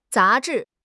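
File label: "za2 zhi4", Mandarin Chinese; HSK4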